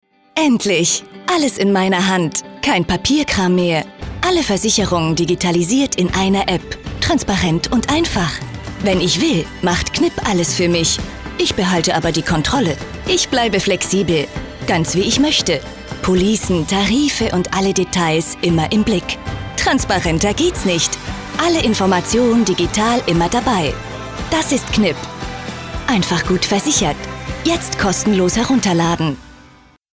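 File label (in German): Kommentar Freundlich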